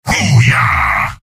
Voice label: soldier cheers; source engine; tf; mvm